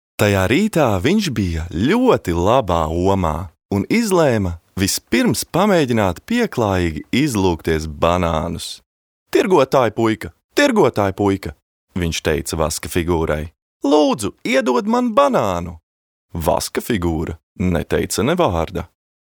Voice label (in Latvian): LV Pasaka